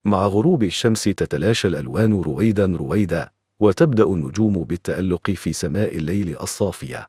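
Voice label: Arabic, Saudi Arabia; iOS Sounds; samer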